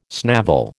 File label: "snavel", American